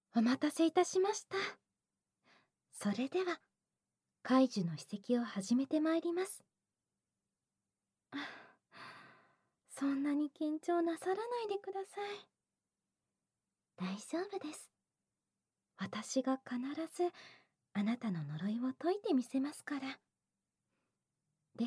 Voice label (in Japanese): 事務的巨乳シスターのカウントダウン耳舐め手コキ; SEなし